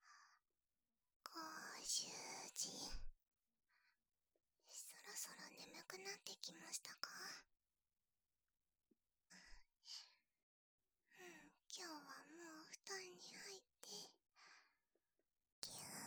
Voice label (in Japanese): 添い寝して一緒に寝ましょう, ASMR